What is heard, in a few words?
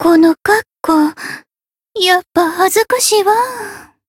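Voice win, Japanese; hoozuki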